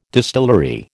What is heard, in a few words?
"distillery", American